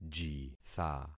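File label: "jitha", concatenated syllables; Affixing experiment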